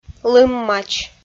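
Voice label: "лым мач" Komi, female; kpv audio dict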